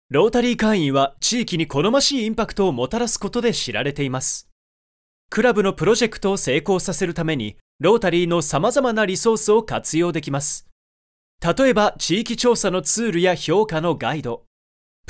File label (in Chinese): 外籍日语男磁性浑厚